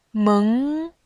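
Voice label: "meng", Mandarin, tone 2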